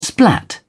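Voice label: "splat", British English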